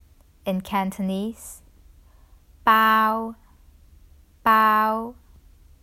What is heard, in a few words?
"Bao 包", Cantonese; The Duke Who Didn’t: Glossary & Pronunciation Guide